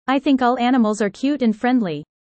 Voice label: user fast; Animal friends